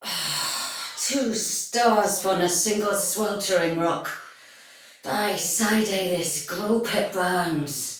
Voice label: Patrol Spoken Soldier Female; Dialog; Sinecure